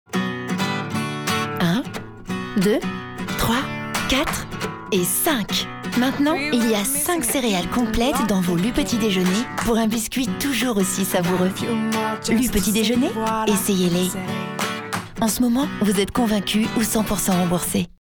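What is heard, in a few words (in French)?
Complice heureuse, comédienne voix off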